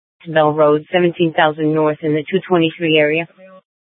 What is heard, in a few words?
Police Dispatch, scanner; Phoenix; 200 Black Mountain Precinct